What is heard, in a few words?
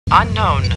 Computer Voice; Star Trek